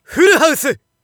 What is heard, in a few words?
Narration poker full house